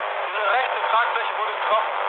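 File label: German right wing mood low, speech; War Thunder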